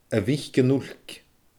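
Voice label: "a mhic an uilc", Scottish Gaelic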